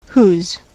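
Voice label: "who's", American English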